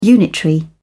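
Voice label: "unitary", British English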